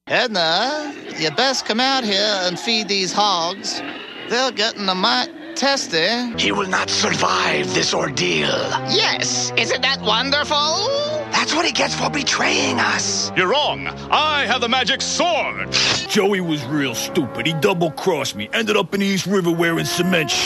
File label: male English USA USA accent character compilation; voices; devine voices